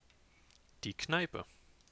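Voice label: "die Kneipe", German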